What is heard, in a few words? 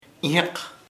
"branch rama", chamacoco